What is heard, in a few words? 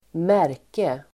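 "märke", Swedish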